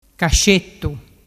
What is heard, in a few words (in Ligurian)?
"cascettu", dialettu